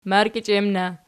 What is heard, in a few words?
"margichiem", Archi